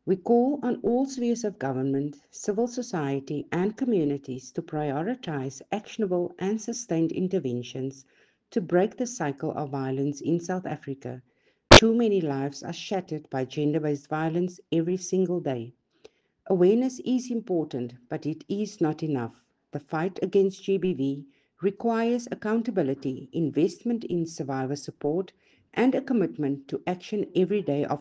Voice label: Message in English